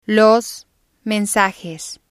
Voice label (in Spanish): "los mensajes", spanish